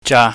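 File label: "ja", Cantonese, mid level tone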